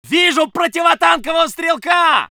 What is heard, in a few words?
voice sov infantry